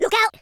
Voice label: "take cover", Madchester